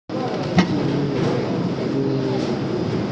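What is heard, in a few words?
"zhi1zhu1", Mandarin Chinese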